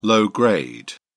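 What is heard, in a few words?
"low-grade", British English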